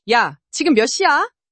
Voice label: anger